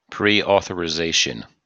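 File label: "preauthorization", us English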